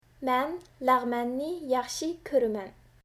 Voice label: "Lagman", Uyghur